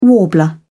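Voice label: "warbler", English, British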